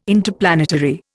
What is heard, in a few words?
"Interplanetary", Indian